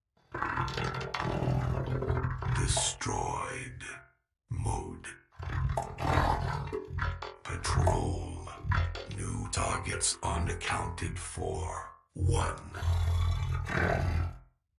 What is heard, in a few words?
Radio; Warper